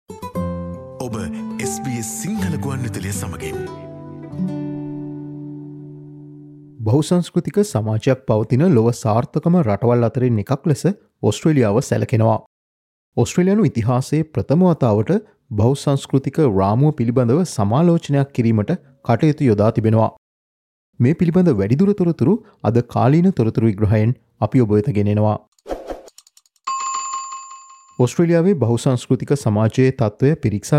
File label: sinhala explainer multicultural review; sbs